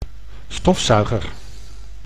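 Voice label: "stofzuiger", Dutch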